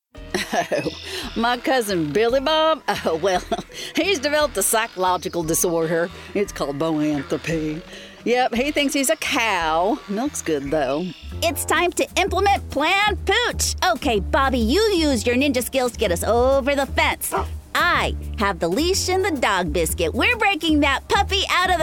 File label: character animation